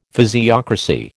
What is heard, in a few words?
"physiocracy", American